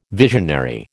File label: "visionary", American